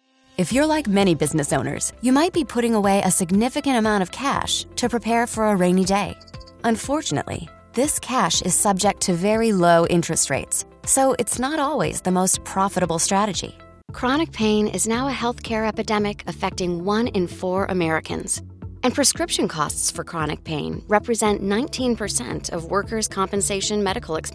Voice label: Elearning